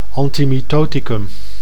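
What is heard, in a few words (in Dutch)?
"antimitoticum", Dutch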